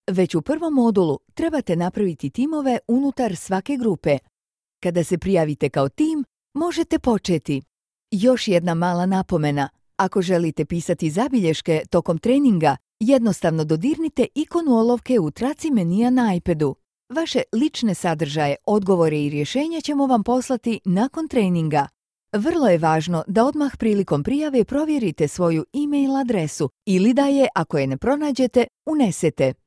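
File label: Bosnian narration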